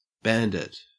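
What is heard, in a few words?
"bandit", Australian English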